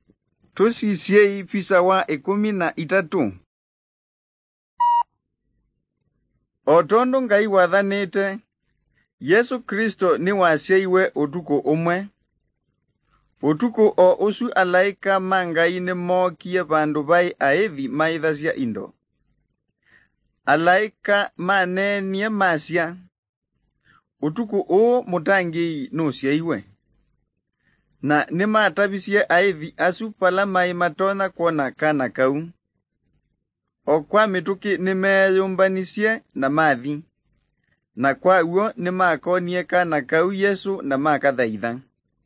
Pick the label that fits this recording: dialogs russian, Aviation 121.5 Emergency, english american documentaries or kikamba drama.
kikamba drama